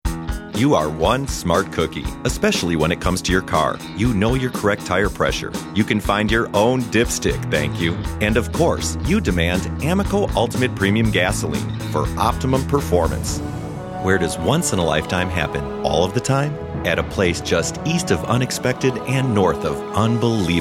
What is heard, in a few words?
commercial